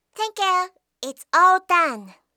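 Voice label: "thank you", English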